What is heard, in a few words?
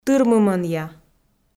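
"тырмымӧнъя", Komi, female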